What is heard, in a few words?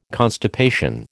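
"constipation", American English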